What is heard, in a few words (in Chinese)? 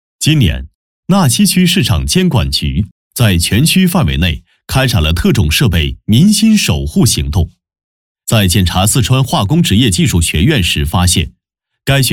男, 新闻播报; 小电梯蕴含大民生